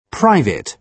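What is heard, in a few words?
"private", British English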